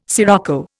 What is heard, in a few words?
"scirocco", Indian